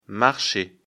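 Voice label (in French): "marcher", French; afrikalan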